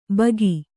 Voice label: "ಭೋಕು", kannada

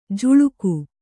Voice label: "ಝುಳುಕು", Kannada

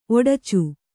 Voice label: "ಒಡಚು", Kannada

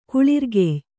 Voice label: "ಕುಳಿರ್ಗೆಯ್", Kannada

